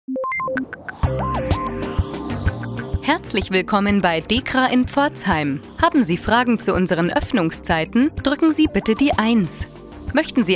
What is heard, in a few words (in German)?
begruessung mit automatischer vermittlung